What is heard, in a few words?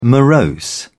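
"morose", British English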